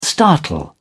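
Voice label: "startle", British English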